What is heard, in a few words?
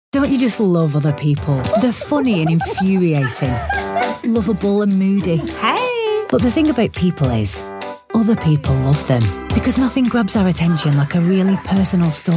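commercial, female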